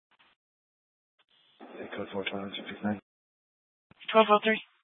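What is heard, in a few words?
Mesa Police Department Superstition Dispatch; Mesa PD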